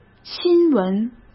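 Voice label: "新闻" Mandarin Chinese; chinese flashcards; hsk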